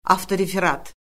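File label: "avtoreferat", Azerbaijani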